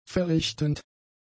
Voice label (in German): "verrichtend", german; adjectives